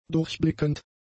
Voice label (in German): "durchblickend", German; adjectives